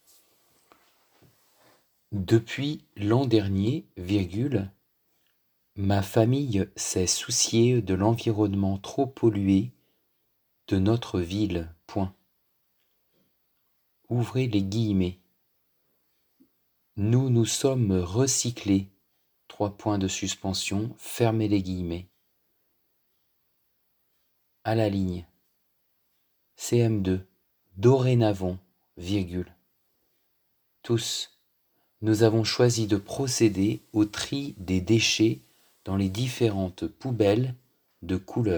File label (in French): Dictée flash Recyclage